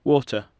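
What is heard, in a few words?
"water", English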